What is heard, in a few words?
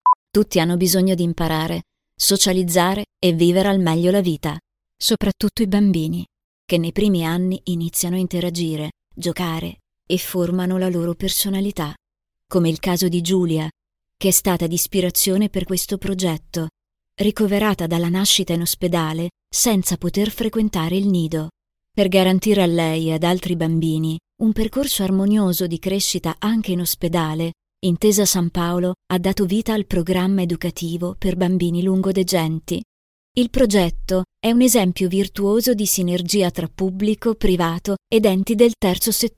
female Italian corporate compilation